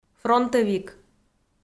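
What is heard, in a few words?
"фронтӧвик", Komi, female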